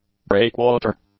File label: "breakwater", English